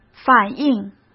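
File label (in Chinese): "反应", Mandarin Chinese; hsk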